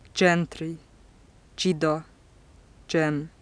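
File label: postalveolar affricate voiced initial, hungarian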